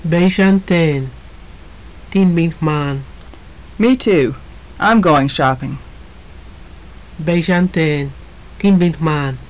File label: conversation, maya; Beyxan teen. Tin bin jmaan.; Me too. I'm going shopping.; JUNP'IIT MAYA